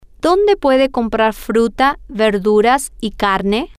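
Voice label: where can he buy fruit vegetables and, Spanish, female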